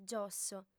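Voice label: "giosso", female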